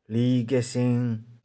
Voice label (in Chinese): "li1kih4shing1", 玉環